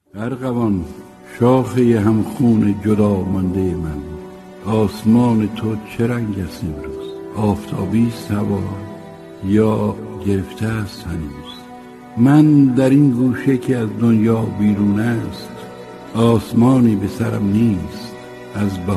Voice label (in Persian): شعرخوانی; شعرخوانی هوشنگ ابتهاج